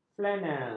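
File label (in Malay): "flanel", Malay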